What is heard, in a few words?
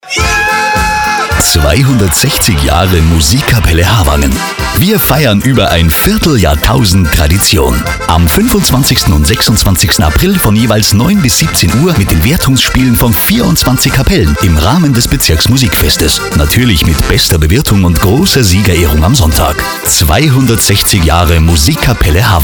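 German, male, bavarian commercial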